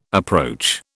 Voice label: "approach", British

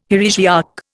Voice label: "heresiarch", Indian

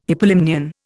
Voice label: "hypolimnion", Indian

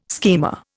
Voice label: "schema", Indian

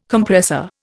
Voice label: "Compressor", Indian

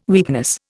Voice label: "weakness", Indian

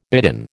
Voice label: "bidden", American

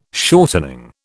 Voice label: "shortening", British

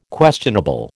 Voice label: "questionable", American

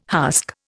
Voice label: "husk", Indian

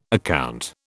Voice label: "account", British

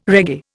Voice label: "reggae", Indian

